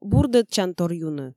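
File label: Komi, female; бурдӧдчантор юны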